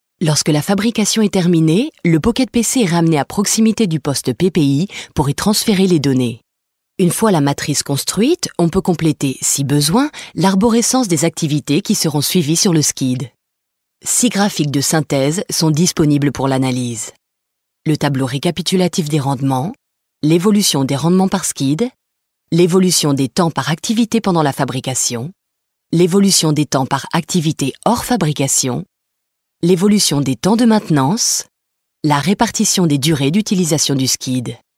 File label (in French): institutionnel; loreal